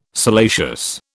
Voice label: "salacious", British